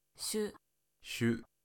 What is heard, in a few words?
"shu", Japanese